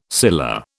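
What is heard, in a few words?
"scilla", British English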